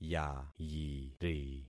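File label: "yayiri", concatenated syllables; Affixing experiment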